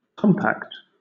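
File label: "compact", British English; pronunciations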